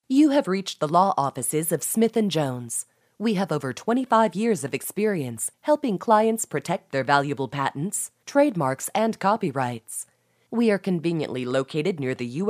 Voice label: Newscaster

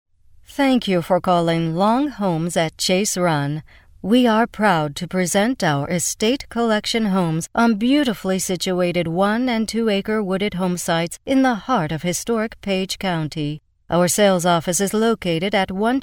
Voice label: Elegant and Sophisticated Dry

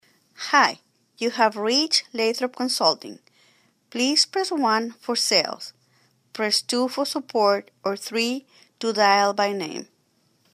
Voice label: Spanish Accent Dry

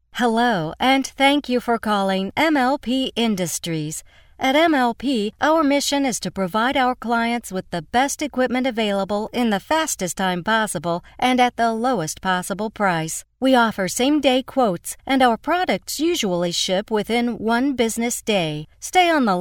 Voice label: Animated Dry

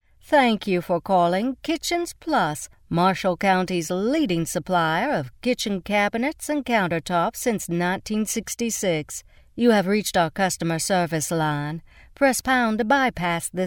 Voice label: Southern Accent Dry

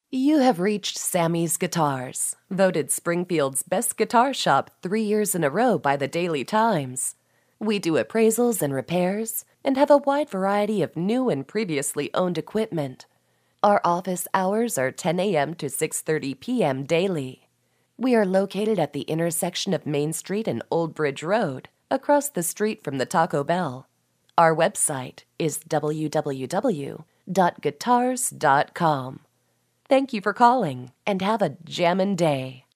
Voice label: Laid Back Dry

